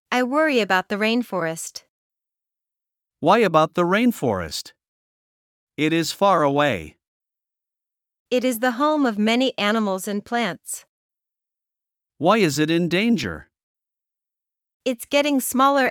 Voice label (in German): Dialog; Regenwald; nachhaltigkeit-klimawandel